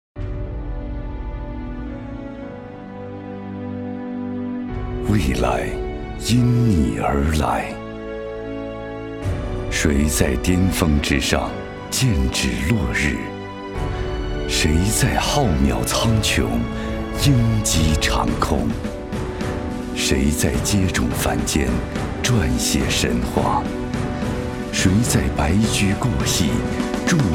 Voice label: 男 旁白 电动车; 凤凰电动车

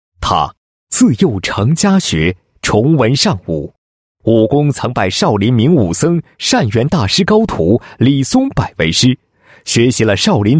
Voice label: 男 人物; 儒商 唐某事迹

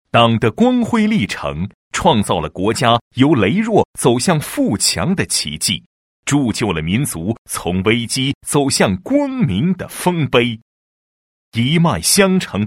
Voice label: 男 党建 供水公司党建录音; 南部福康

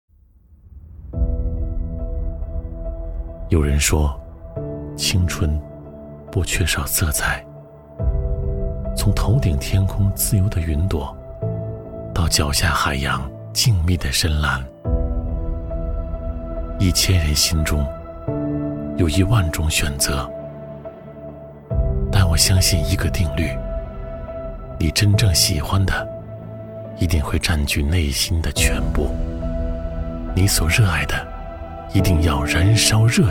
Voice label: 男, 旁白, 暖心温和, 磁性温厚; 军装

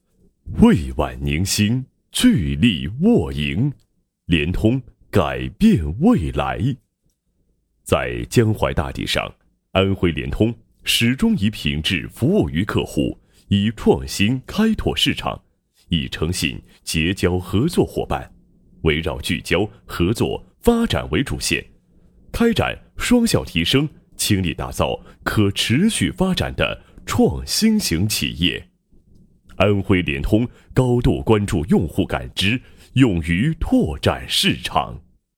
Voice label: 男 企业宣传 企业宣传配音; 安徽联通

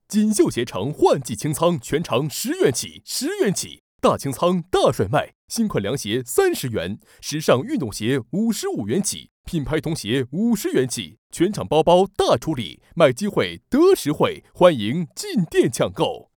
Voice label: 男 促销 鞋城; 锦绣鞋城

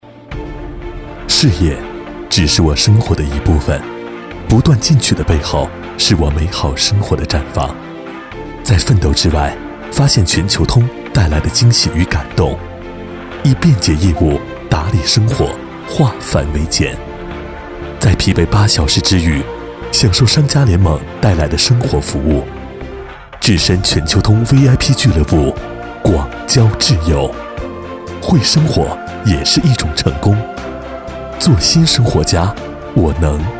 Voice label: 男60 品牌广告; 国语男声配音; 交广主播; 中国移动通信

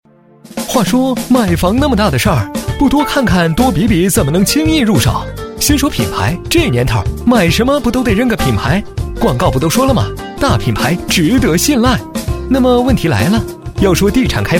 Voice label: 男 搞笑风格; 国语男声配音; 磁性专题; 璀璨天城

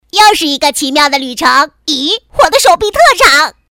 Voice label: 女 童声; 字字龙

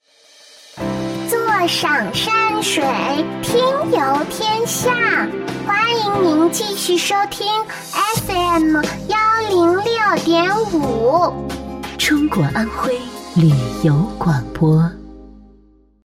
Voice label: 女4号 女童 台宣 广播, 国语女声配音

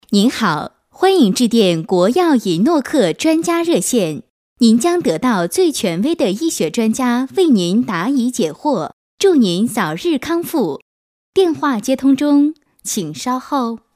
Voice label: 女 年轻甜美, 彩铃 成熟大气彩铃 欢迎致电 专家热线; 欢迎致电国药银诺克专家热线

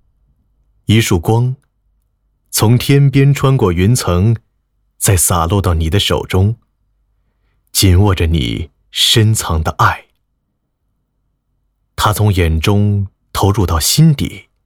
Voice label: 男 旁白 抒情 内心独白

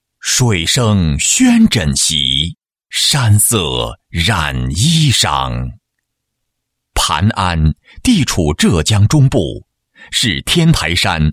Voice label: 男 城市宣传片; 磐安

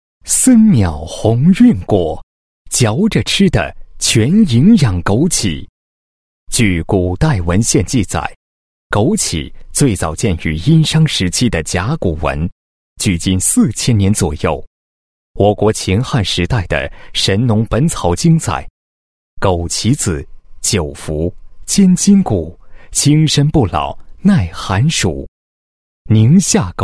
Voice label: 男 专题 枸杞宣传介绍; 森淼红运果枸杞宣传介绍

